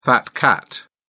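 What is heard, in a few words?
"fat cat", British English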